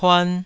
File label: "puan2", Taiwanese